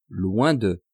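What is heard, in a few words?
"loin de", French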